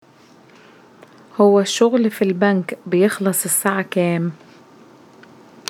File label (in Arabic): في أي ساعة بينتهي العمل في البنك Egyptian; question 3 which dialect أي لهجة؟